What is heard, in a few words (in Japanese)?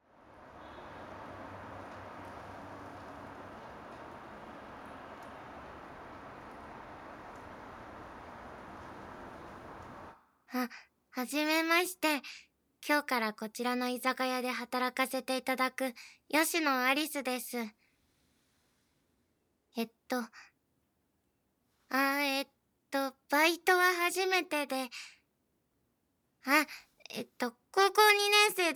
自己紹介, ASMR